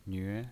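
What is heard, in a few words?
"nve" second tone, pinyin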